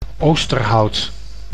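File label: "Oosterhout", Dutch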